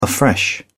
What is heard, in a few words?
"afresh", uk